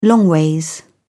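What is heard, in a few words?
"longways", British English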